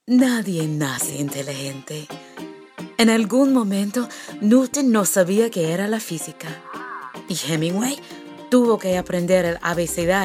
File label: SPANISH VO; SPANISH DEMO